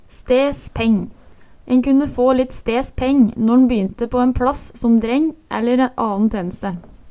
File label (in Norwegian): stes peng, Numedalsmål; Eksempel på bruk En kunne få litt stes peng nån bynte på en pLass som dreng æller aen teneste